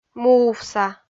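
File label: "муувса", Komi, female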